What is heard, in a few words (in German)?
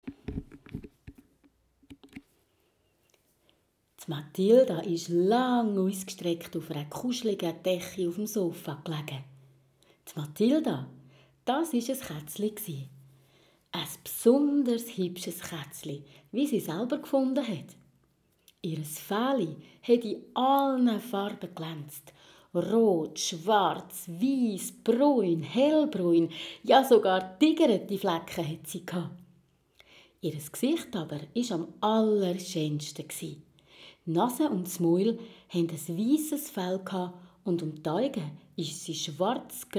Erzählerin Obwalden